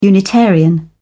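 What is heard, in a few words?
"unitarian", British English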